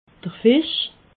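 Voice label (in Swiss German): "fisch", Alsatian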